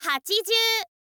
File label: "hachizyuu", girl; voice